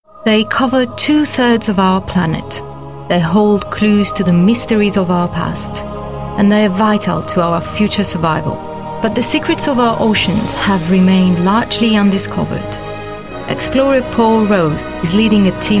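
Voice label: english documentaries, Female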